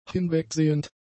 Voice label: "hinwegsehend", German; adjectives